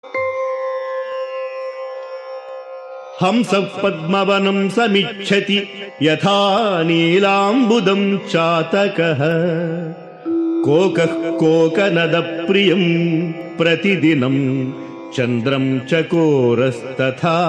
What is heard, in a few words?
Sanskrit; Sri Adi Sankaracharyas Sivananda Lahari; Adi Shankaracharya; श्रीशिवानन्दलहरी ५९